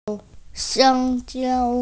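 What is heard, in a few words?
"xiang1jiao1", Mandarin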